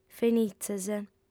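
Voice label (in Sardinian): "fenitzes", female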